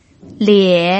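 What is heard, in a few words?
"lie", Mandarin Chinese pinyin, tone 3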